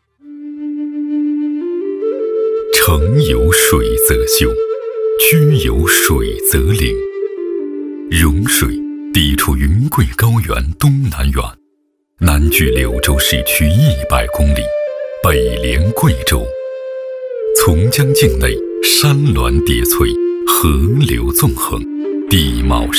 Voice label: 男 纪录 风景 片 大气悠远; 融水

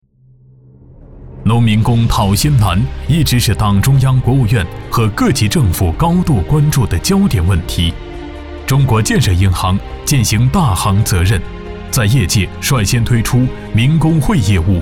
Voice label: 男 专题 严肃 威严 民工

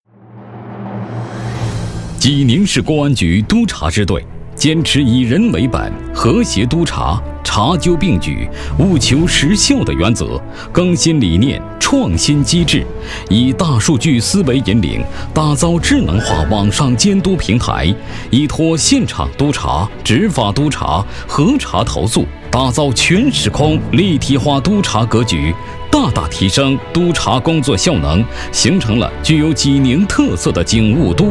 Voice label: 男-专题; 济宁公安

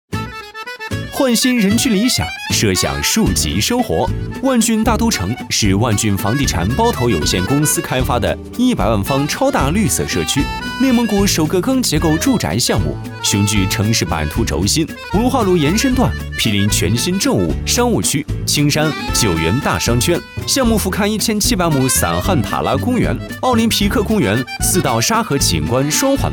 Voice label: 男, 品牌动画

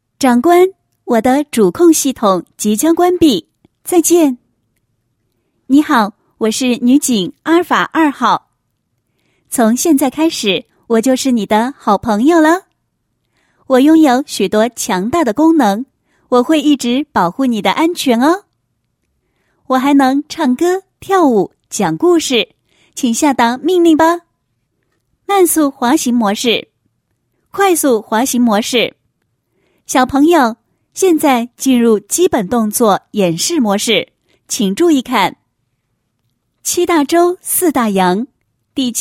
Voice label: 女 角色 女警; 阿尔法二号

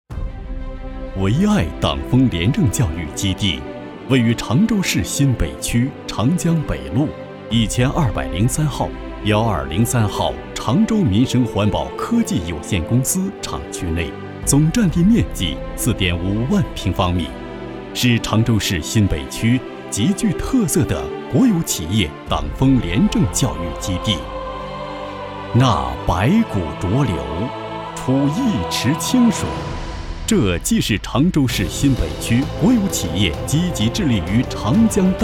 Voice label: 男 专题 党风廉政教育

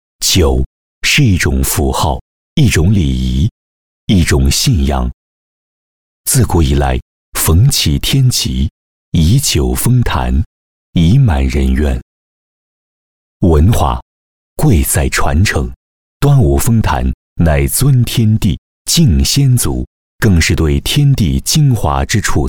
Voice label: 专题片解说词配音; 端午封坛

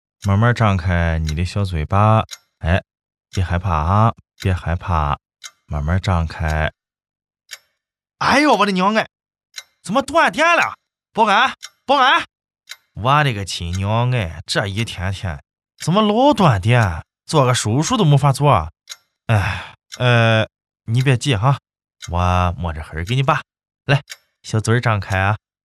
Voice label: 男, 济南方言

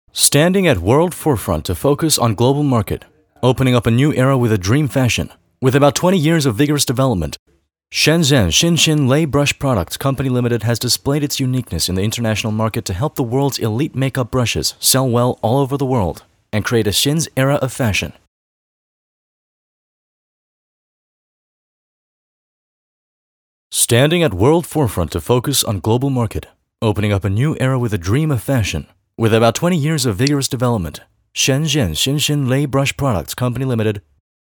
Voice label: 宣传片, 英文

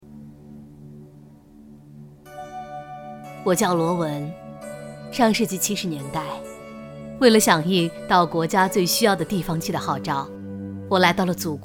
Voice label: 女 讲述 中年人音色; 海河口县

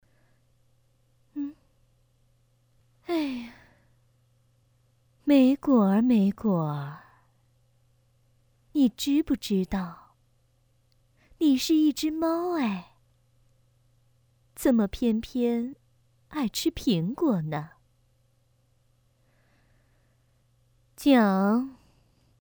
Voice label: 动漫角色配音

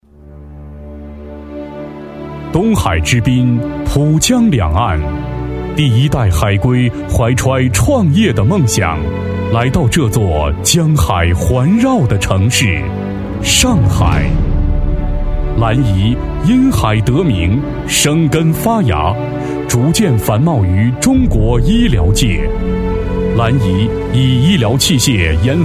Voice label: 男 专题 深沉大气; 蓝怡专题

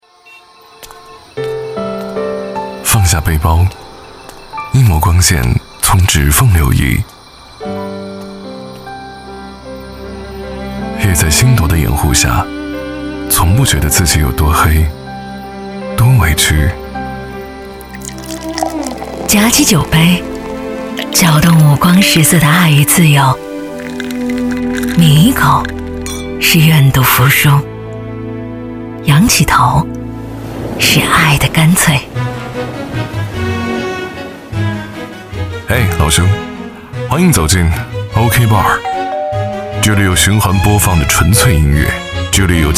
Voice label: 女 电台 男女搭 频道宣传; 不负岁月不负卿

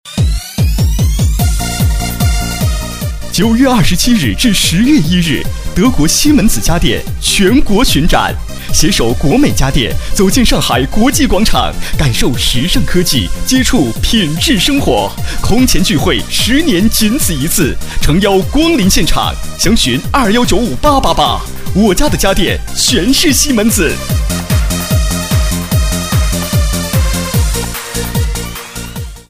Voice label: 男, 激情促销; 德国西门子

